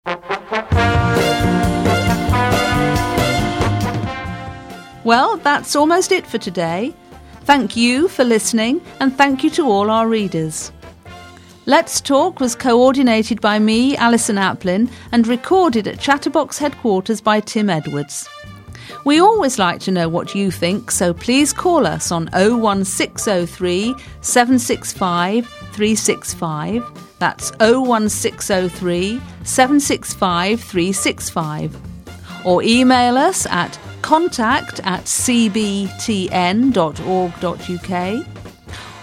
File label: Closing Announcement